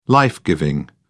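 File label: "life giving", British English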